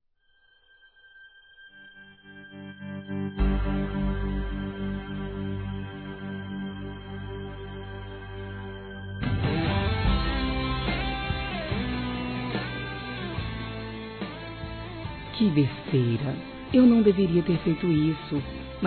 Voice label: Romantica Desculpa Romantica Feminino, Telemensagens; Românticas

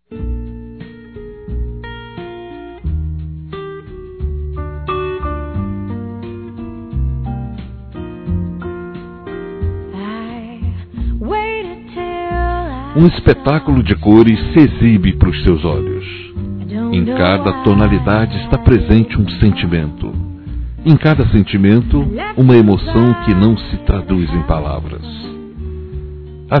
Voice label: Neutro Masculino; Aniversários; Aniversários diversos

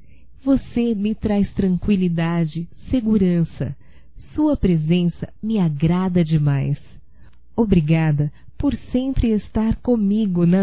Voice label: Amizade Para Amigo Feminino, Telemensagens, Só Voz; Telemensagens 2017

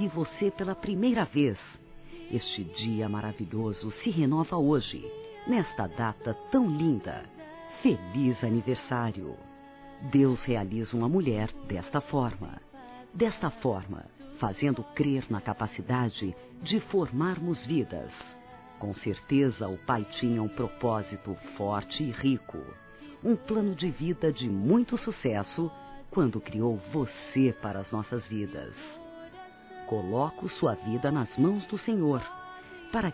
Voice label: Filha Evangélico Feminino; Aniversários

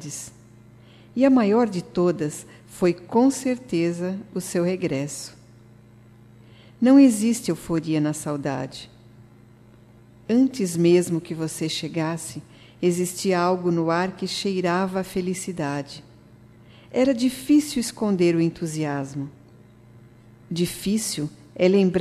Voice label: BOAS VINDAS (PARA QUEM VOLTA DE VIAGEM), Telemensagens, fem

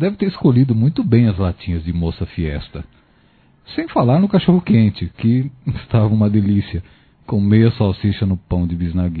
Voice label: Obrigado mais sua festa foi um lixo Masculino; Convites e Agradecimentos; Telemensagens 2017

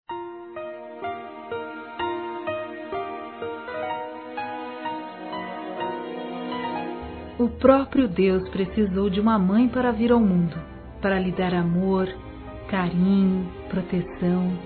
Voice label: mãe religiosa ou evang, F; Telemensagens 2017

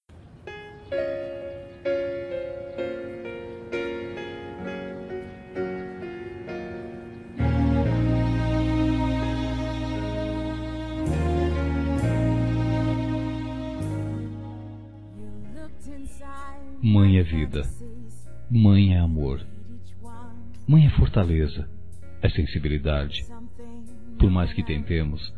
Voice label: Homenagem Geral M; Dia das Mães; Masc; Telemensagens 2017

